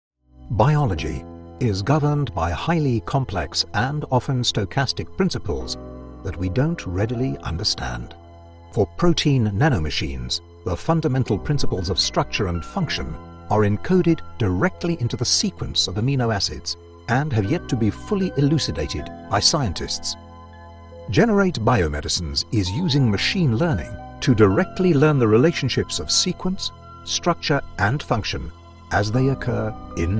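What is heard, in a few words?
MEDICAL NARRATION, American English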